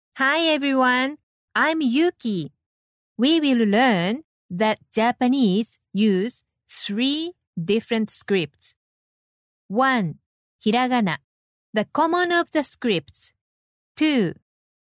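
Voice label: Japanese, female, English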